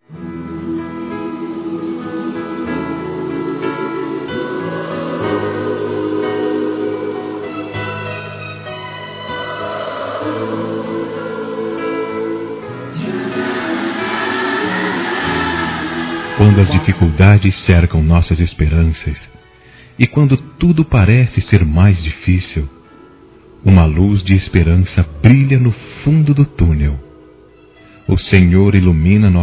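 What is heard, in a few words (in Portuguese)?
Neutro Católico Masculino; Telemensagens 2017; Aniversários; ANIV. Evangelico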